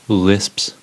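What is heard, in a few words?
"lisps", US English; pronunciations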